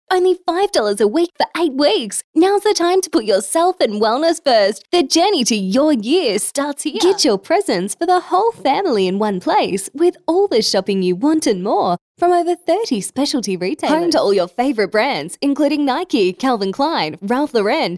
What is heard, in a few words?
Hard Sell